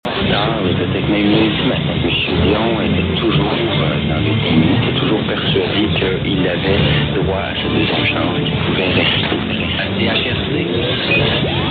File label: ids only, mw dxing; 800; chrc